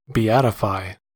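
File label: "beatify", US English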